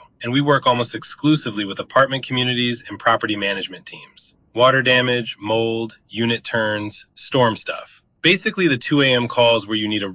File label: voicemail